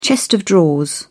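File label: "chest of drawers", British English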